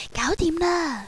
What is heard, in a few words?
File Done, Cantonese girl; ICQ